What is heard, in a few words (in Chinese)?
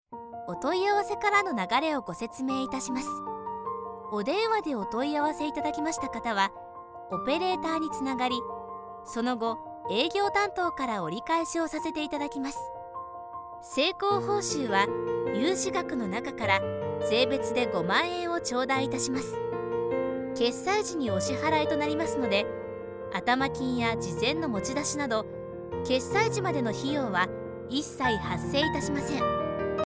日语 女声